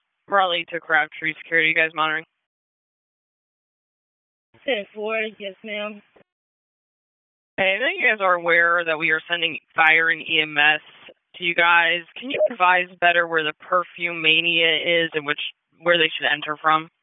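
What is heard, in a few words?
Police Spec Ops Tac, radio; Raleigh; Spec Ops Tac 11; wake; p25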